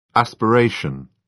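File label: "aspiration", British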